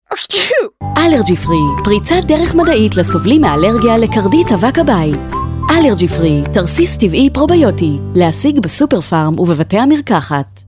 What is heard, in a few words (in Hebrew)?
תשדיר; קרדיט אבק הבית